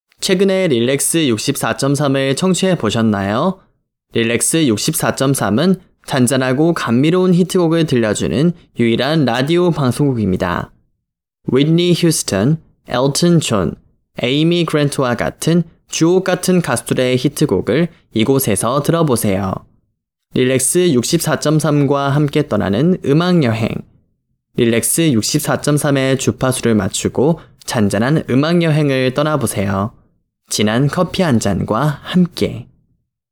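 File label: Korean Commercial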